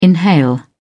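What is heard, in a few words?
"inhale", British English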